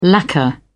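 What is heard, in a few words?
"lacquer", British English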